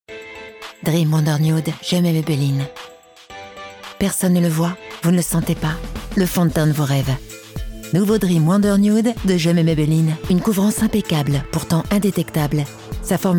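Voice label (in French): Spot TV, voix off féminine; Gemey; Complice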